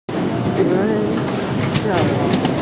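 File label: "xue2xiao4", Mandarin Chinese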